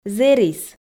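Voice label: "зэрис", Komi, female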